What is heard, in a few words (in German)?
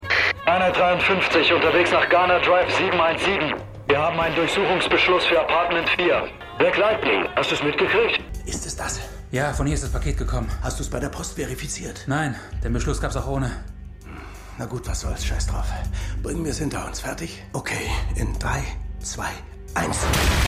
Funkstimme Polizist; "Das Buch des Wiederaufbaus: Kapitel eins: Kollateralschaden"; Black Lightning